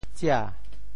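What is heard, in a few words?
"tsia2", Teochew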